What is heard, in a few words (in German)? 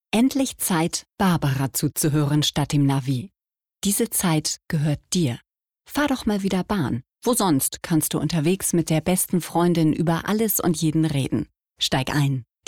Werbung